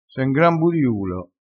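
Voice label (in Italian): "budiulo", vocabolario dialettale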